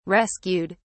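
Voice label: "rescued", British English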